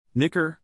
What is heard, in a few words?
"nicker", English, neural; animal sounds in english; a low whinny; Stephen